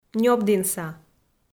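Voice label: "нёбдінса", Komi, female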